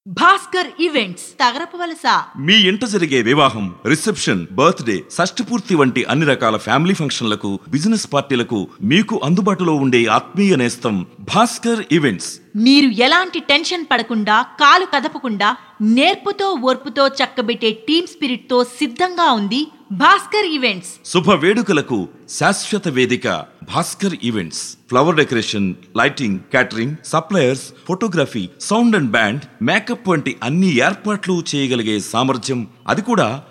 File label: radio spot telugu